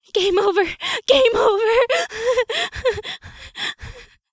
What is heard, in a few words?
Panic; zps